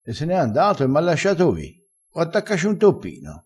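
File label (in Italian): "attaccaci un toppino", vocabolario dialettale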